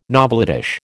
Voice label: "novelettish", American

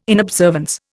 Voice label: "inobservance", Indian

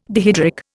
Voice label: "dihydric", Indian

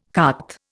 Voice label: "carte", Indian

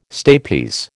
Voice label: "stapes", American

